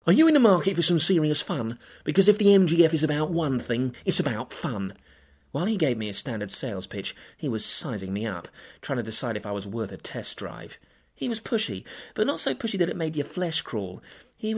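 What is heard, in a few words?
drama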